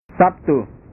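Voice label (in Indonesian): "sabtu", Indonesian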